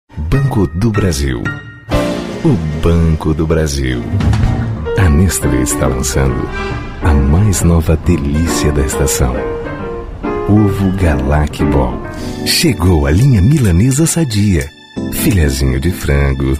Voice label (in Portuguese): locutor